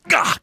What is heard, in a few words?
pain, citizen; hl2